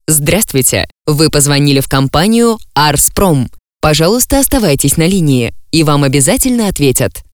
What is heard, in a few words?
IVR